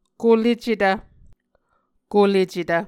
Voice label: "kolecetv", Muscogee